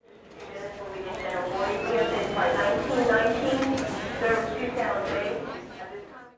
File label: ANNCR, Airport; zones